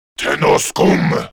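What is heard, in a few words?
Enemy Tenno Scum, English; Grineer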